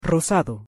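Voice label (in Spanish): "rosado", Spanish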